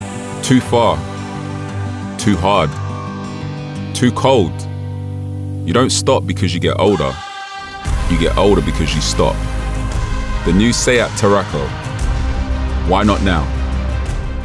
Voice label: male english tv promo; seat; Devine Voices